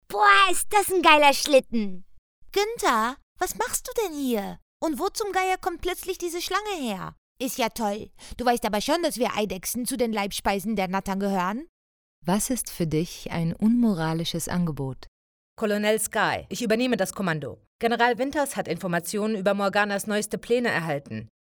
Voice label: DE character

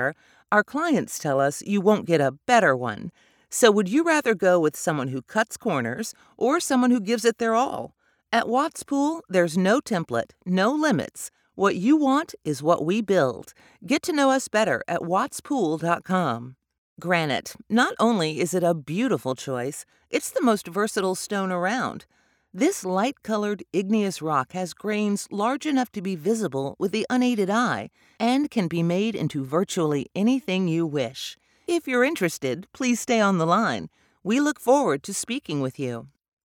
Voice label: On Hold Marketing

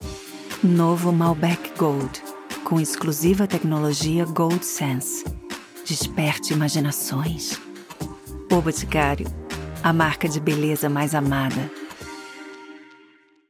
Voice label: Comercial

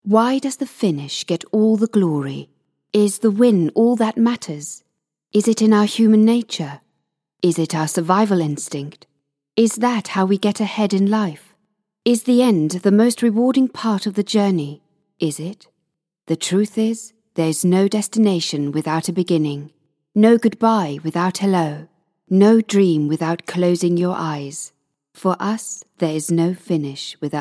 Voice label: Commercial inspirational

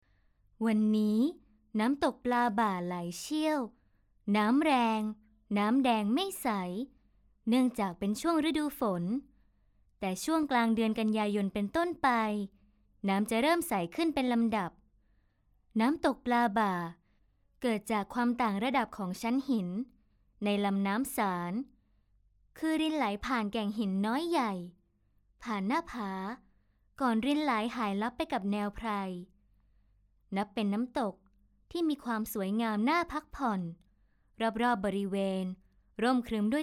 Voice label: Documentary Narration

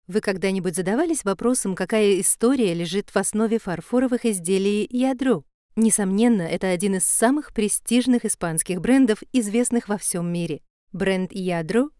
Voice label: Demo RUS; Lliadro

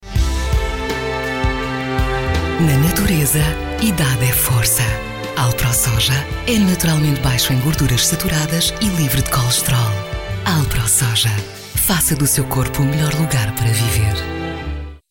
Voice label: TV Radio Calm Sincere engaging; Alpro Soja

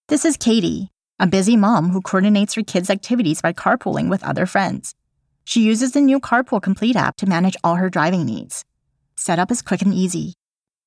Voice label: Elearning

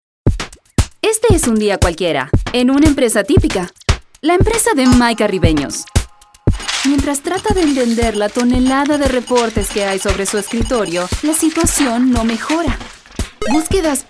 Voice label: es LA INFORMAL NARRATION

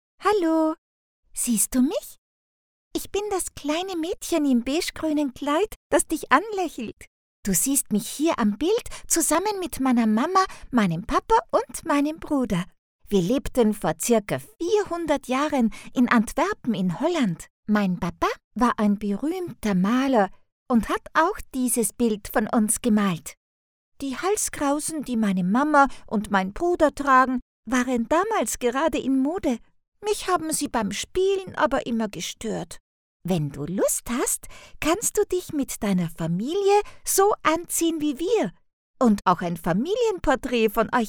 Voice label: character young girl

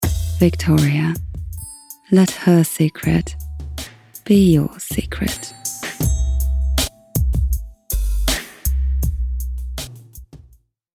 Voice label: Ad

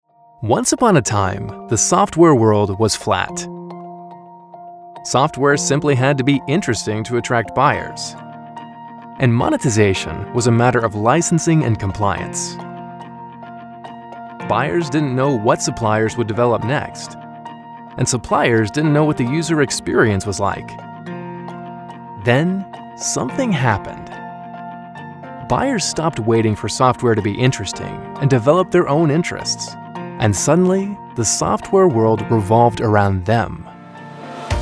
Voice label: Explainer

